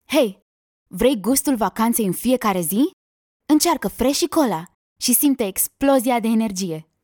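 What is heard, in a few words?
playful commercial, female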